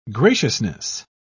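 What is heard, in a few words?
"graciousness", English, British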